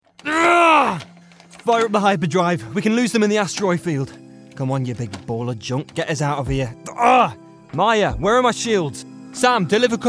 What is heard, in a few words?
Video Game Voice